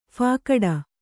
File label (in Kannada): "ಫಾಕಡ", Kannada